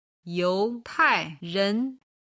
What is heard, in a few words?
"youtairen", Chinese